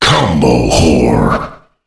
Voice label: combowhore, Announcer Voice Lines; Unreal Tournament